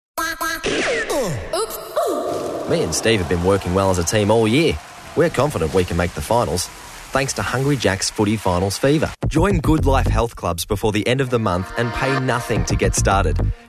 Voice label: Voice Over